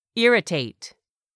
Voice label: "IRRITATE", english; 3000 words